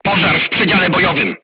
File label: voice message fire in tank, polish